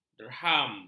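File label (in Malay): "derham", Malay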